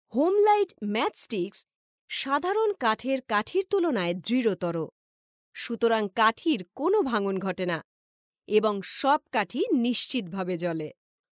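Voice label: Bengali, Bangladesh, female; voice over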